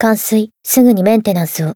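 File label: Voice zodiac win first, Japanese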